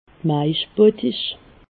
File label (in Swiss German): "maischbottich", alsace